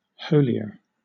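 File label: "holier", British English; pronunciations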